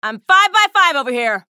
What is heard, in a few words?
chatter positive; athena; frag